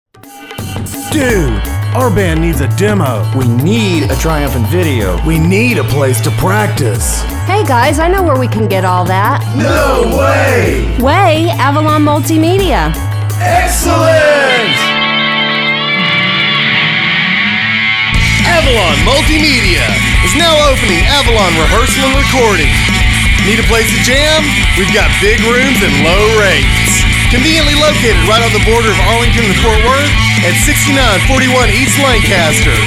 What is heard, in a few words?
commercial; AvalonRNR